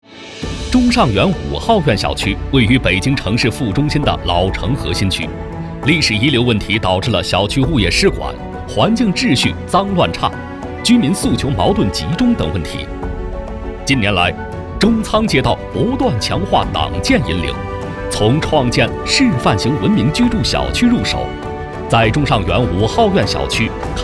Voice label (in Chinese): 男, 工程介绍; 文明小区创建工作